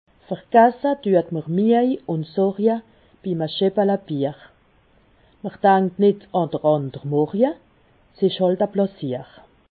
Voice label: "sorje", alsace

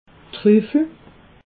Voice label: "truffel", alsace

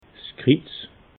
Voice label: "kriz", alsace

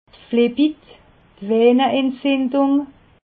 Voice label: "flebit", alsace

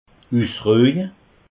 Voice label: "usrueje", alsace

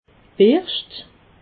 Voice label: "berscht", alsace

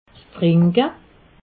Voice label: "trinke", alsace

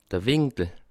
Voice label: "winkel", alsace

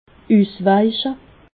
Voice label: "usweiche", alsace

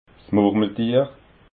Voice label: "murmeltier", alsace

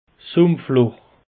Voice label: "sumpf", alsace; peche

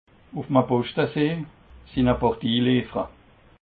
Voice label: "poschtesee", alsace

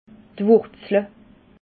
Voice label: "wurzle", alsace

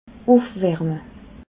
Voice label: "ufwarme", alsace

